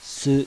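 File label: kana "SU", Japanese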